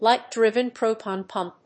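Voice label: "light-driven proton pump", English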